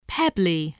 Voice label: "pebbly", English, voice

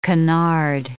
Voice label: "canard", English

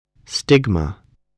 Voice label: "stigma", voice

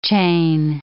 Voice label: "chain", English

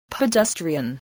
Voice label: "pedestrian", English, voice

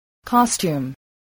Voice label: "costume", voice spelling

